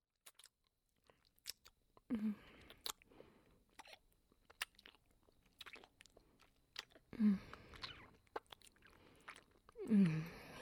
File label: Ambience Wet Noises; Overeager